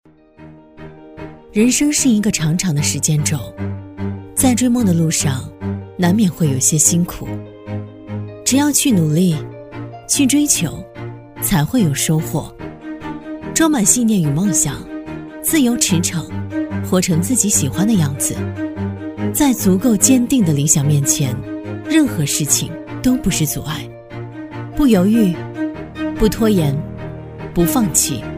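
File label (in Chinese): 女-励志旁白【大气坚定】; 逐梦远方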